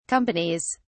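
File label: "companies", British English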